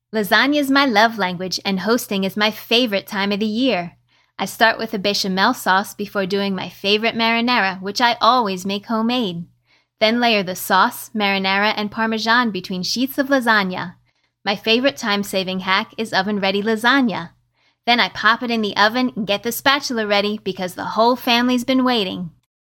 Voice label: Pasta Brand Social Video